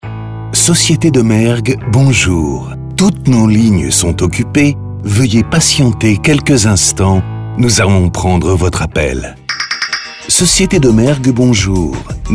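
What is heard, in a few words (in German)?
telefonansagen sprecher French; Native Speaker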